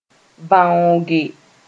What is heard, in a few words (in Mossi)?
"vãooge", moore